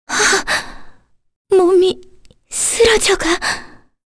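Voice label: voice Dead, Korean; heroes; Kirze; kings raid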